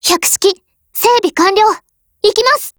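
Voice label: go attack, Japanese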